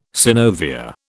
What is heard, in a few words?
"synovia", British